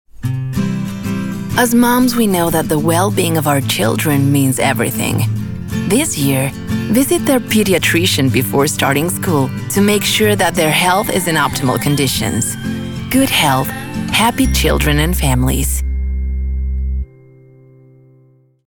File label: Caring Motherly EN